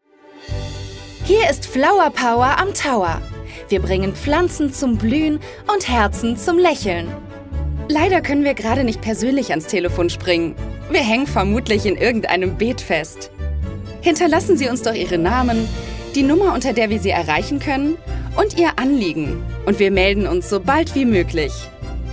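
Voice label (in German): freundlich, Sprecherin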